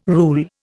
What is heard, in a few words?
"rule", Indian